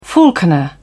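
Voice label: "falconer", British English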